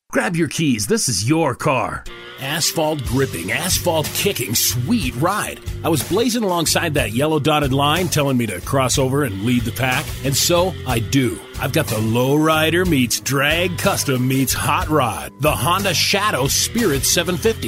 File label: M